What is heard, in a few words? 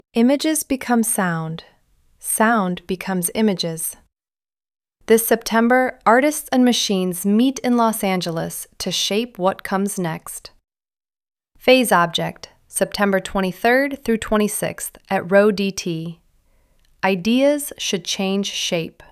narration, radio spot